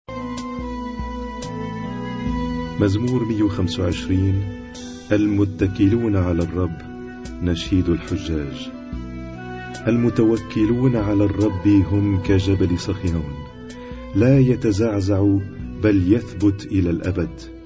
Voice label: Arabic Psalm Zaboor